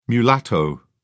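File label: "mulatto", British English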